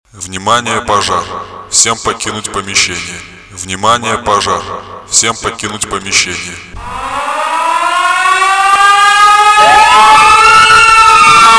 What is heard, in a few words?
male voice announces the alarm